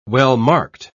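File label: "well-marked", British English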